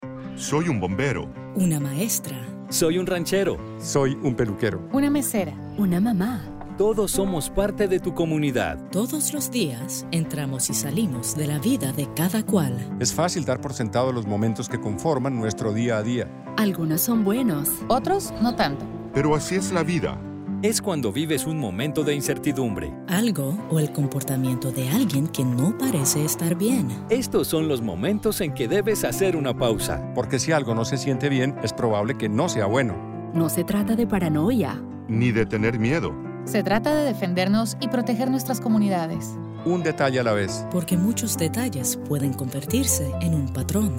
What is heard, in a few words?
See Say Spanish